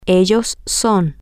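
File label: female, spanish; they Are Permanent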